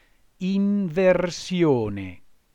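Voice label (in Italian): "inversione" Italian word, voce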